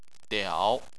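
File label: "diao" third tone, Chinese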